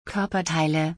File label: Body parts in German